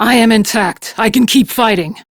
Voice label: Crew Heal, Steel Meridian Female; Dialog; en; Warframe; RailjackCrew